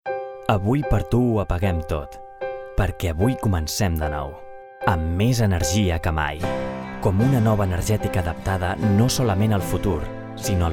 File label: male catalan energy comercial; ideasonora